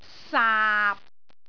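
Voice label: "saap6", Cantonese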